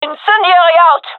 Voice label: throwing fire, gendermerie fem; vo